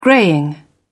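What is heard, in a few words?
"greying", British English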